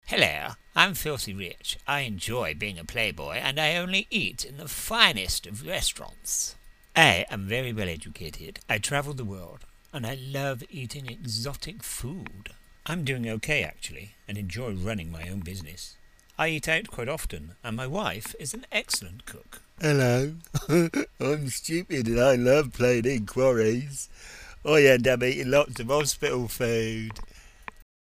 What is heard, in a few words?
Radio Ad